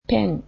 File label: pen, japanese